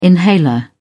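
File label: "inhaler", British English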